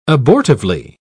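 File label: "abortively", English, British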